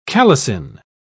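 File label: "calycine", British English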